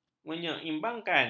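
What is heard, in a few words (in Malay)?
"menyeimbangkan", Malay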